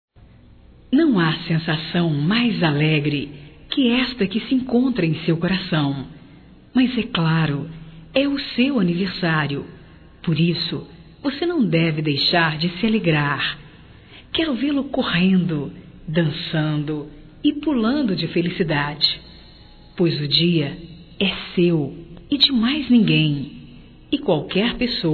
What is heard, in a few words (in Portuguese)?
Telemensagens Aniversarios fem; Telemensagens 2017